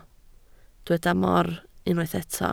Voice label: "mor", Welsh